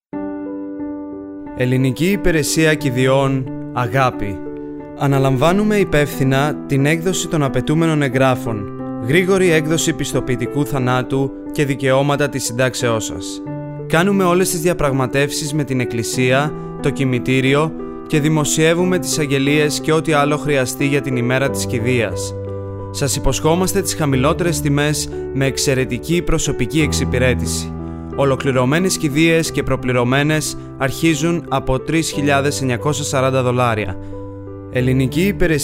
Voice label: AUDIO ADVERT, funerals; AGAPI FUNERALS